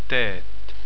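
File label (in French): "tete", French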